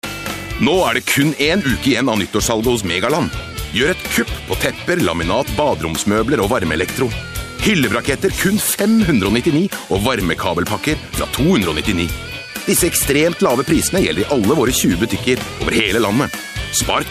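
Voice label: norwegian male commercial; voice demos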